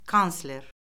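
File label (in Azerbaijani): "kansler", Azerbaijani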